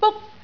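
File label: "buk1", Cantonese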